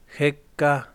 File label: "sombra", Yaqui; Vocabulary